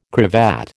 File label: "cravat", American